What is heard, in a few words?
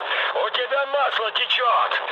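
Russian damaged oil mood med, speech; War Thunder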